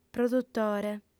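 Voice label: "produtore", Sardinian, female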